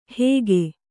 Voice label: "ಹೇಗೆ", Kannada

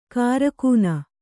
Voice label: "ಕಾರಕೂನ", kannada

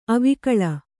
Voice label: "ಅವಿಕಲ", Kannada

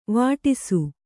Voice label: "ವೇಡಿಸು", Kannada

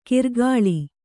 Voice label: "ಕಿರ್ಗಾಳಿ", Kannada

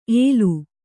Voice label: "ಎಲು", kannada

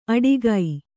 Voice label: "ಅಡಿಗೈ", Kannada

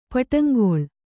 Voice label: "ಪಟ್ಟಂಗೊಳ್", Kannada

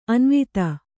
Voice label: "ಅನುವಾದ", Kannada

